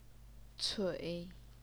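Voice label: "cui", third tone, Chinese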